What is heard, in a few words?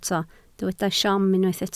"siom", Welsh